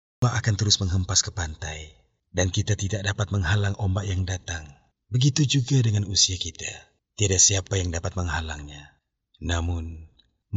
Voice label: Malay, Male